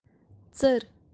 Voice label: "tser", sparrow, "tsar", Kashmiri